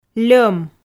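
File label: "льом" Komi, female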